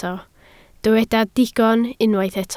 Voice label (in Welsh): "digon", Welsh